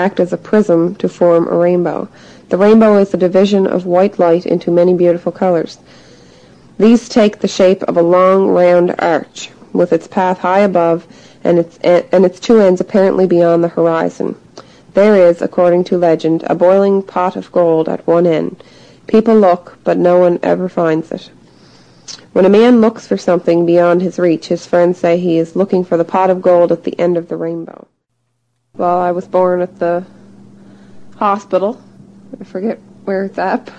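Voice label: prince edward, International Dialects of English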